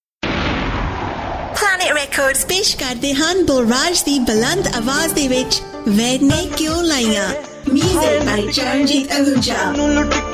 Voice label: Advert; Punjabi